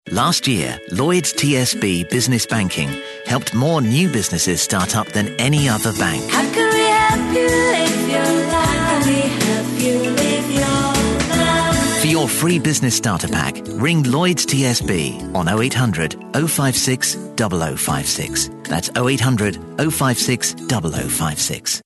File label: friendly